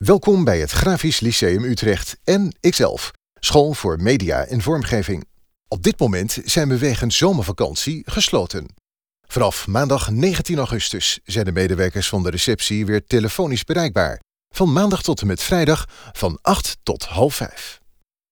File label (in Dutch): voicemail stemgeluid ervaren telefoon; Tobespoken; Grafisch Lyceum Utrecht